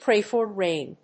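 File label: "Pray For Rain", English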